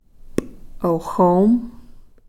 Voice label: "p'oxom", flint